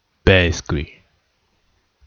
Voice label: "basically", pronunciation